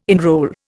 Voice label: "enrol", Indian